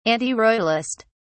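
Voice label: "antiroyalist", British English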